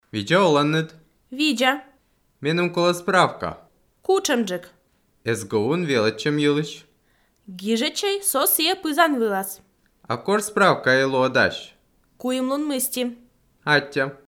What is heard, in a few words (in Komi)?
dialog univer, Komi